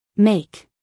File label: "make", US English, female; everyone-can-use-english